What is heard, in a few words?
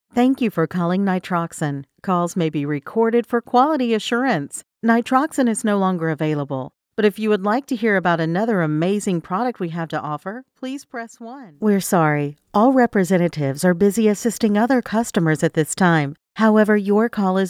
Phone IVR